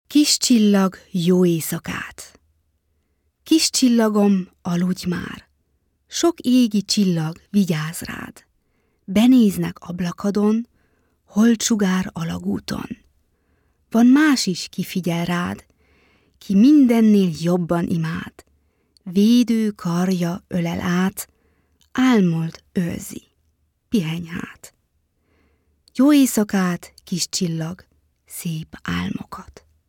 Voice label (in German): ungarisches Gedicht